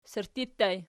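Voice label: sirtti ergative plural, Archi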